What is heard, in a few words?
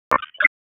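Raleigh Police Southeast District Tactical; p25; wake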